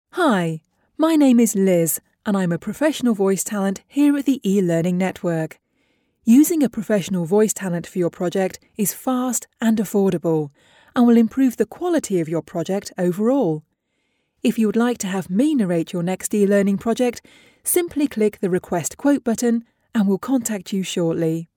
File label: eLearning